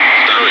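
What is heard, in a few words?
Russian loud; Wingman; DCS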